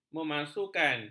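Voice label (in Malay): "memalsukan", Malay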